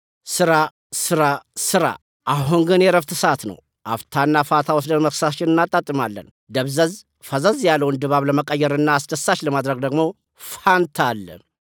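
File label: Amharic, male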